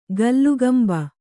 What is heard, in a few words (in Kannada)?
"ಕೀಲ್ಕಂಬ", Kannada